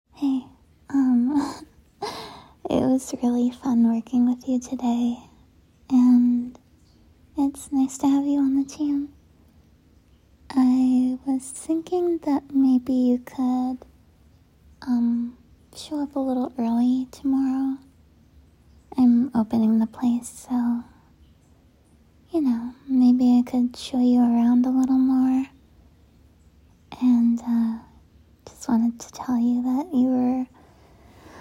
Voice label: Voicemail; your new coworker